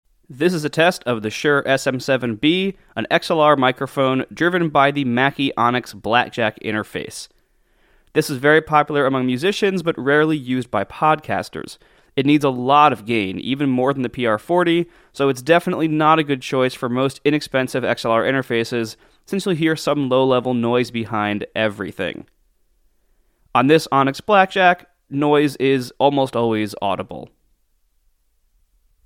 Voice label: SM7B Mackie, microphones